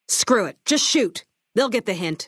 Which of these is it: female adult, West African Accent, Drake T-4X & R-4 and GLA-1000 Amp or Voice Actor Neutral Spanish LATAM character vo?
female adult